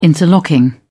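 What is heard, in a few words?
"interlocking", English, British English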